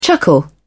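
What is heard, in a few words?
"chuckle", British English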